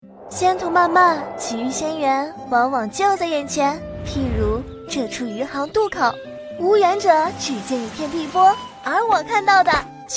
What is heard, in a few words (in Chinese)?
女 俏皮少女; 万物有灵